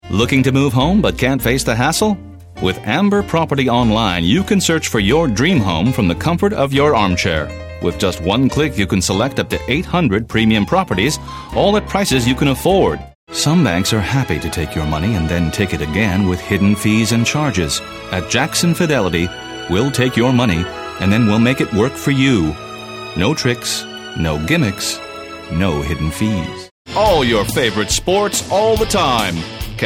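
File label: American English, TV commercial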